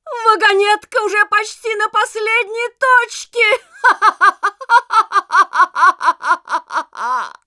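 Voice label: Announcer cart attacker final warning, Russian; "Вагонетка уже почти на последней точке!" (маниакальный смех)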